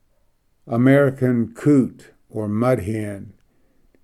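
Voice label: "american coot", English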